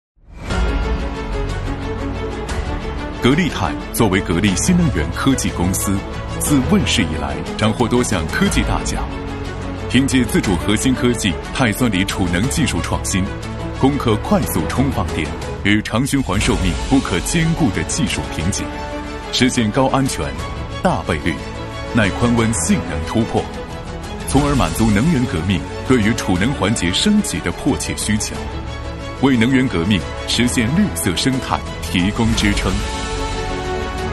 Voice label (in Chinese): 男 大气企业 广告; 格力钛新能源; 央视